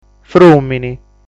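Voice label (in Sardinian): "Frùmini", Sardinian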